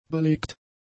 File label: "belegt", German; adjectives